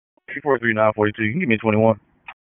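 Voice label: Sheriff's Office Dispatch 1, p25; wake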